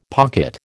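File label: "pocket", American English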